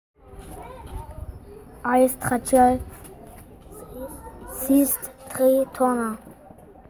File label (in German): Sprachmemo